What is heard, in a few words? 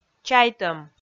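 "чайтӧм", Komi, female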